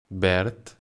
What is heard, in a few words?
"green", Catalan